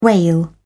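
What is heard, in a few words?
"whale", British English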